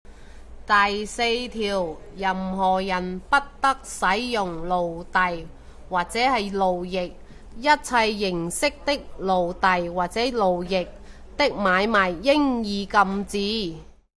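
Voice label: Cantonese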